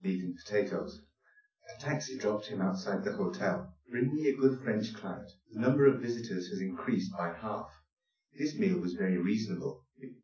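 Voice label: male speech; SiSEC10; underdetermined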